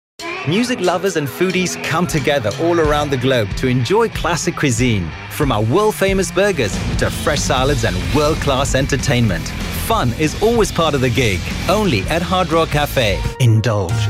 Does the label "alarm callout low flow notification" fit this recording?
No